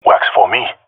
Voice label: agree, jungle male; vo; agents; Source Model Packs; Counter Strike Model Pack; CS2; jungle male epic